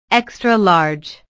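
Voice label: "extra-large", English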